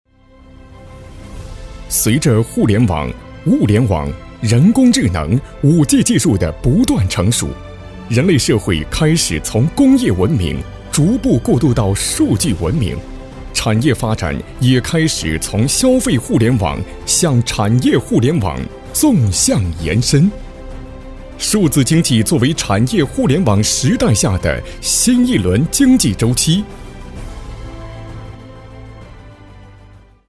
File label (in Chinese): 男 大气专题 互联网科技 大气厚重